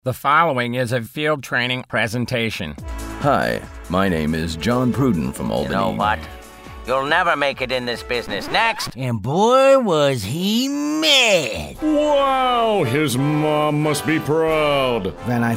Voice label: character